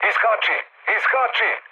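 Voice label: Serbian bailout mood low, speech; War Thunder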